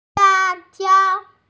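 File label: "la4jiao1" Mandarin Chinese, female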